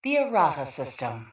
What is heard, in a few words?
PA System; Erata Prime